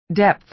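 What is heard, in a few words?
"depth", American English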